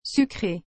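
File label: "sucre", French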